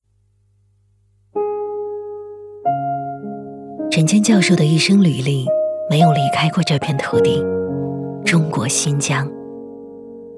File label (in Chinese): 女 纪录片 感人; 一封家书